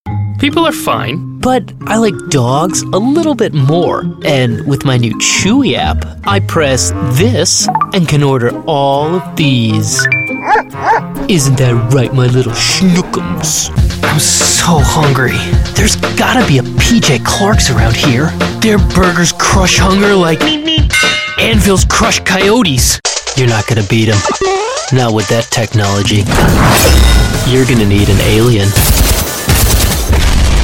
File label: Commercial, North American Voice Over